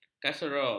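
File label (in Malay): "kaserol", Malay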